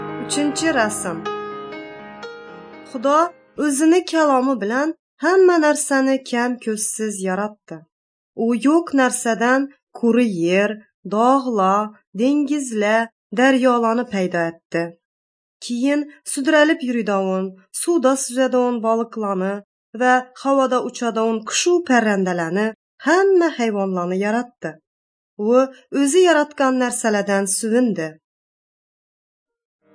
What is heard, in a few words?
uzbek northern kipchak; good news